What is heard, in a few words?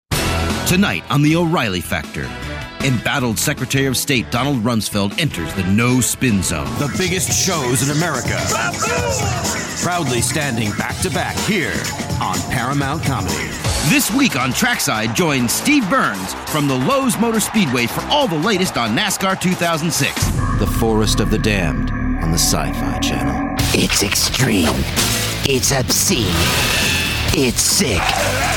Male, promos